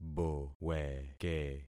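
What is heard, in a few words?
"boweke", concatenated syllables; Affixing experiment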